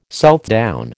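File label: "Southdown", American English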